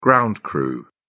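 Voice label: "ground crew", British English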